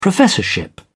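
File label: "professorship", English, British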